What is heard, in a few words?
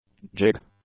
"jig", English; nouns